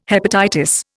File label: "hepatitis", Indian